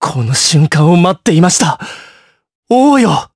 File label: vox, jp; Zafir; kingsraid